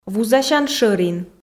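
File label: "вузасян шӧрин", Komi, female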